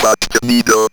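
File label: ROBVOX, robot voice; E-Lab; X Static Goldmine 1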